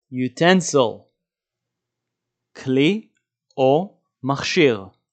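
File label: "utensil" English, "כלי מכשיר" Hebrew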